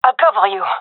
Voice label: covering friend, fem

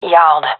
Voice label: loc yard, fem; vo

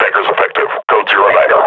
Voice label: player is hurt, grunt; Half Life Model Pack

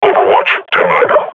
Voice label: combat idle, grunt; Half Life Model Pack

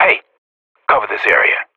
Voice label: radio bot hold, professional; vo; Counter Strike Model Pack; CS:GO

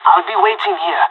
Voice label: waiting here, pirate; Counter Strike Model Pack; CS:GO

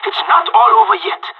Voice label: scared emote, pirate; Counter Strike Model Pack; CS:GO

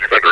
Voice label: "defender", metropolice vo; Half Life Alyx

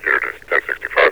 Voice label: unit is 10-65, metropolice; Half Life 2

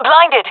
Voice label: blinded, fem; player vo jungle fem

